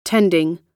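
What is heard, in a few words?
"tending", US English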